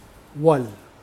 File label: "man", Mokilese